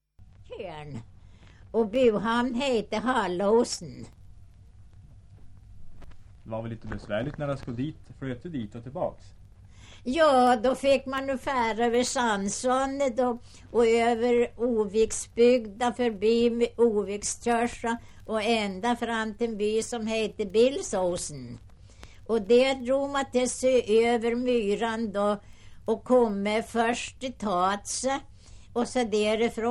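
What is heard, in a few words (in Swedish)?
Hackås Jämtland, dialekter; Folkminnen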